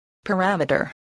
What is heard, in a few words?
"parameter", English, voice